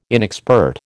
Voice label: "inexpert", American